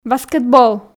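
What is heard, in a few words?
"баскетбол", Komi, female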